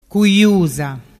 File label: "cuiusa", dialettu